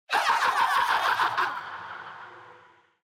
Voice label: fr-fr; fiddlesticks